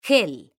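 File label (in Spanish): "gel", Spanish, female; mekanta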